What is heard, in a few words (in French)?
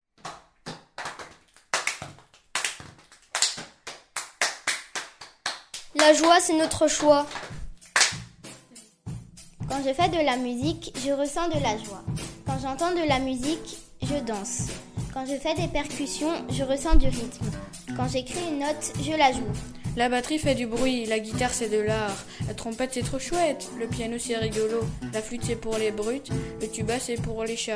la famille musicale slam